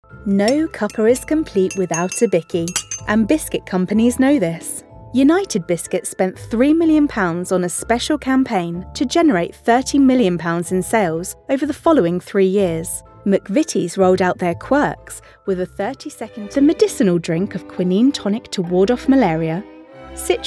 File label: Corporate